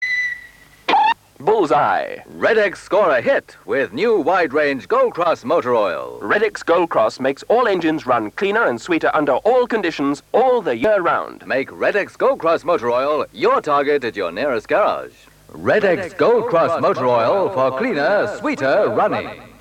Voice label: Motor Oil advert; Redex